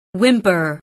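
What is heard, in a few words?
"whimper", English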